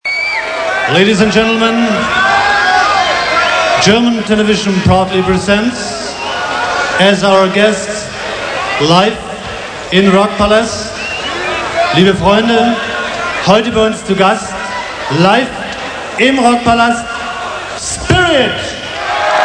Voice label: german